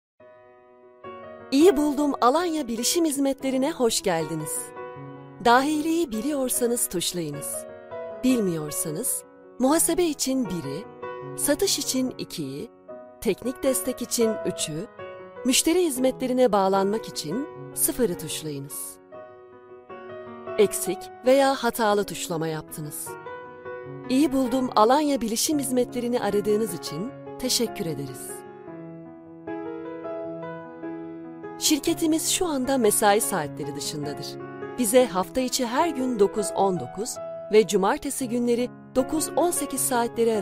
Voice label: turkish ivr